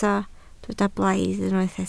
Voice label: "blaidd", Welsh